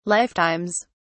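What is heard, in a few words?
"lifetimes", British English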